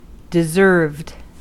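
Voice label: "deserved", American English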